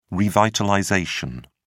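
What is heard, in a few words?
"revitalization", British English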